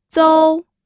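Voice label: "zou1" Mandarin Chinese syllable, first tone; pinyin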